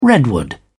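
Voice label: "redwood", English, British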